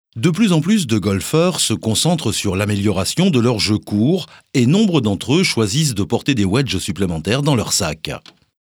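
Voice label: French, narration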